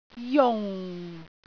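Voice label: "jung4", Cantonese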